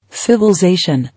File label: "Civillzation", English